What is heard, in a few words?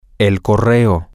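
the Post Office, Spanish, male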